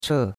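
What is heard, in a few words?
"che4", Chinese; Cours de Chinois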